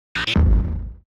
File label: scared; Samsung Galaxy S10; AvatarEmojiSticker Beyond